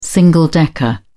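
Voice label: "single decker", British English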